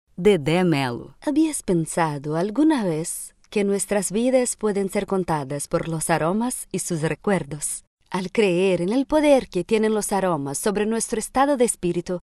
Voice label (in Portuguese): Espanhol brasileira